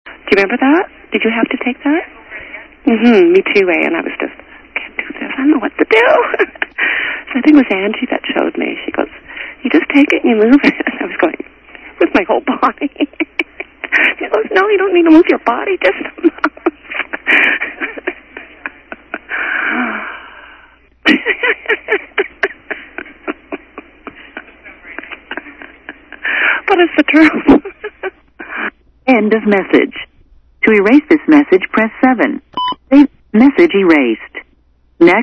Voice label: voicemail